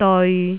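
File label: "deoi3", Cantonese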